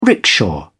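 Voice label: "rickshaw", British English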